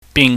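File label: "bing", Cantonese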